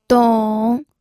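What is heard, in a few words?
"dong", pinyin, third tone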